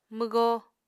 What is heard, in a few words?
"mwgó", Apatani